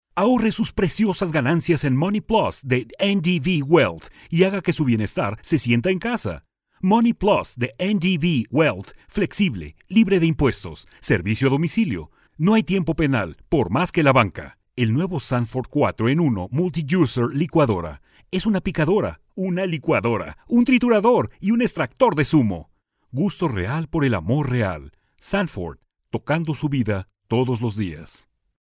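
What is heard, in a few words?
Spanish Latin America male voice over, sales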